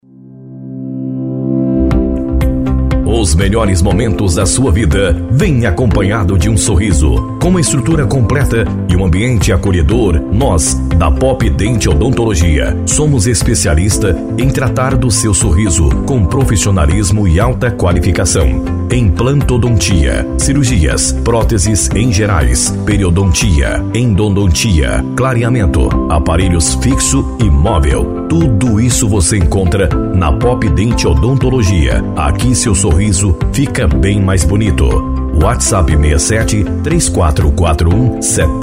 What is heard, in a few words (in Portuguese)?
LOCUTOR, comerciais; ODONTOLOGIA; POP DENTE